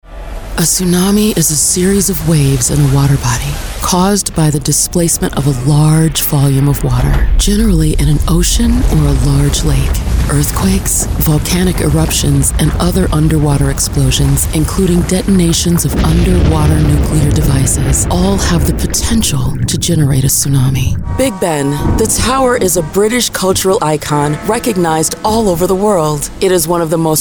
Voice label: Narration